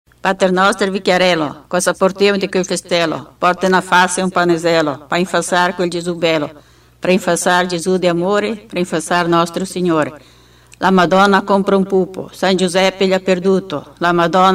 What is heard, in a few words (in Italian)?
Preghiera Pater nostro